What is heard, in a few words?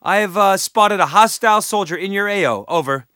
spot; bf3